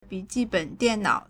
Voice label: "bi3ji4ben3dian4nao3", Chinese